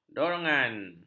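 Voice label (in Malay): "dorongan", Malay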